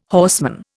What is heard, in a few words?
"horseman", Indian